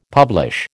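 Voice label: "publish", American English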